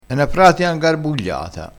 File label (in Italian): "pratia", crespinese